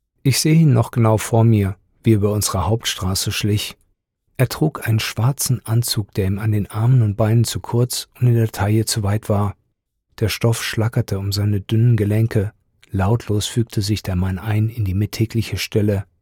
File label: german audiobooks, german voice actors